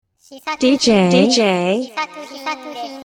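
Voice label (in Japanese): DJの言い方（女性）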